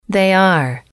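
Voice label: they are temporary, English, female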